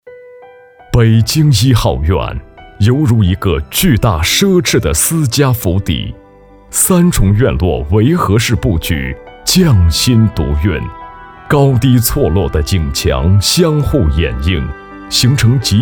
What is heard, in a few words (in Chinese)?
男 广告; 北京壹号院